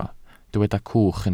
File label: "cwch", Welsh